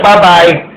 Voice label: bye bye sound